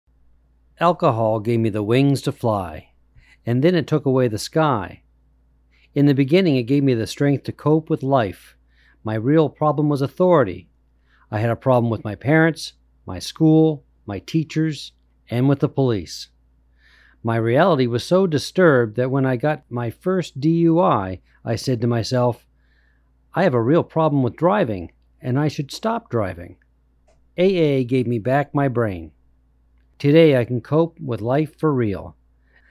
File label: Male